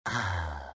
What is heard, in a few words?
happy; shredder